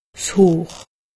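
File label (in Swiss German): "hoor", Alsatian; medecine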